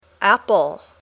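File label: "apple", English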